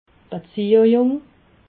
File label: "beziejung", alsace